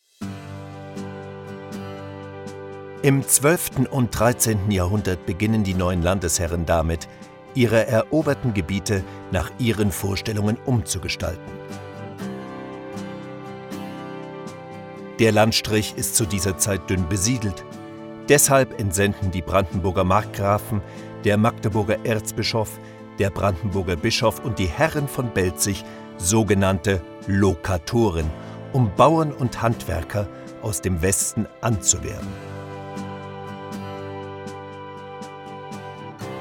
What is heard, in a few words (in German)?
Historische Doku